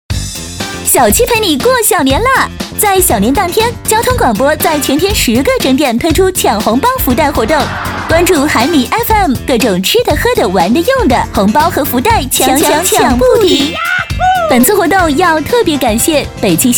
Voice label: 女, 广告; 小七陪你过大年

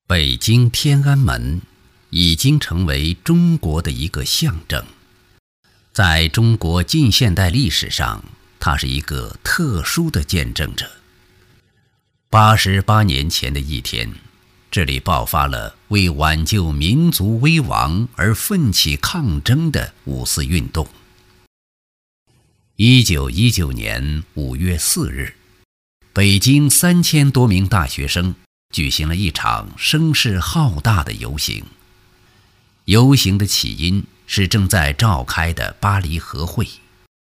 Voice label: 男 纪录片 沧桑 蕴藉, 国语男声配音; 复兴之路

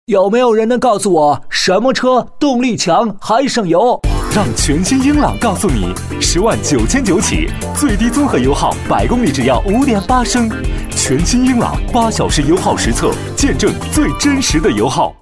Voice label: 男 电台广告 汽车; 英朗

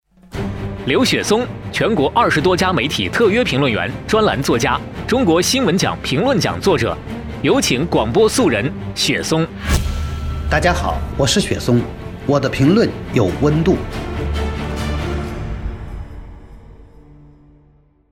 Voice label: 电台片花

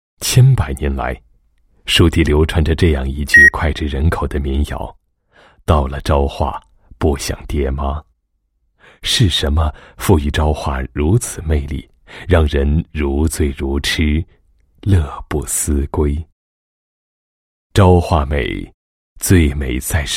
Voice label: 男 专题 城市宣传, 国语男声配音; 醉美昭化

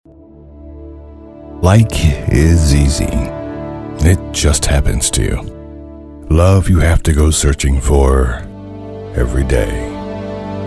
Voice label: 外籍男 Narration

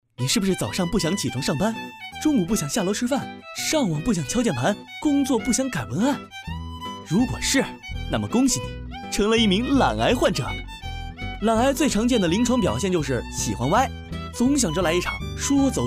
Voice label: 暖男年轻时尚

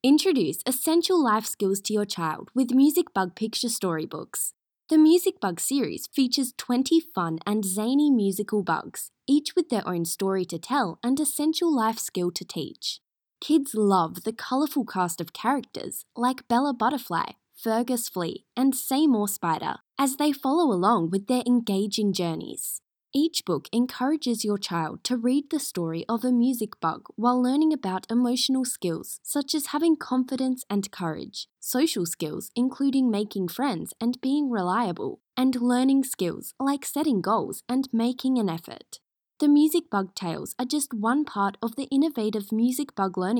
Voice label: 外籍英女,儿童读物宣传

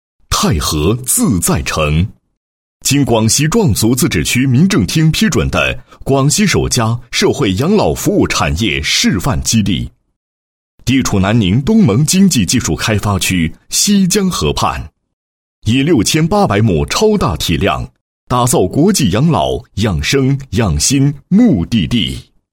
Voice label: 男, 房地产; 太和自在城

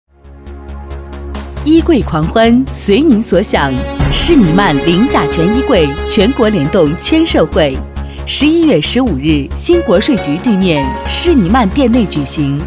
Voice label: 女 广告大气; 诗尼曼签售

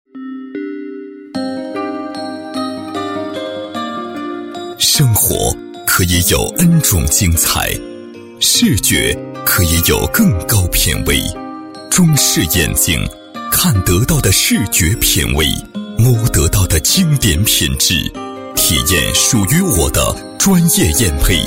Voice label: 男, 国语男声配音, 大气专题; 中视眼镜品味

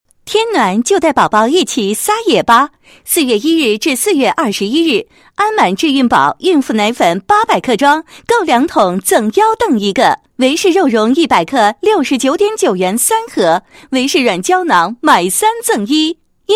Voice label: 女 促销广告 母婴店促销

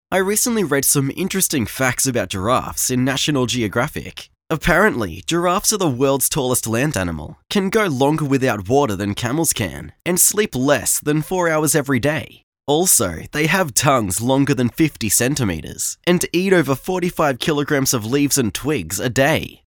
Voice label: 外籍英男, 长颈鹿介绍

